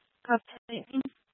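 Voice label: Tempe Police Department, scanner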